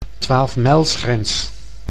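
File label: "twaalfmijlsgrens", Dutch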